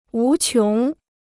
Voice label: "无穷", Chinese